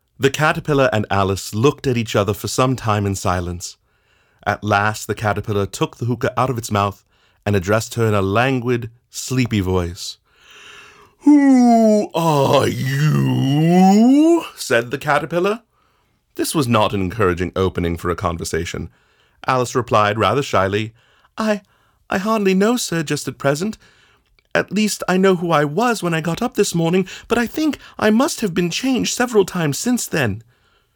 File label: Voiceover; Voiceover Reel; Alice In Wonderland